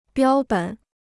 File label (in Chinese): "标本", Chinese